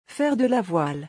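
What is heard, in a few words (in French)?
"Faire de la voile", French; vocabulaire; le lexique des vacances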